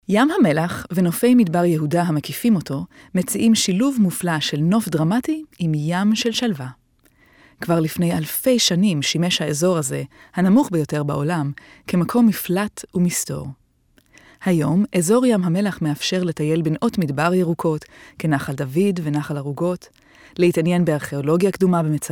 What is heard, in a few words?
Hebrew Voice Talent F